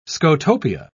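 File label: "scotopia", British English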